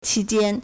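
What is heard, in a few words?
"qi1jian1", Mandarin Chinese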